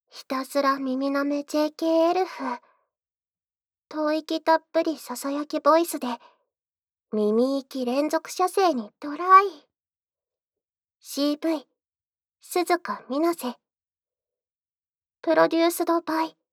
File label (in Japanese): タイトルコール, ASMR